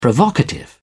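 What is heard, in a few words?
"provocative", British English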